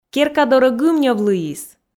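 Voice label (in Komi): керка дорӧ гымньӧв лыйис, Komi, female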